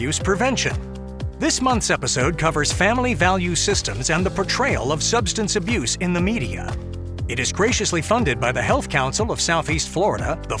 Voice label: Warm and Friendly Podcast Intro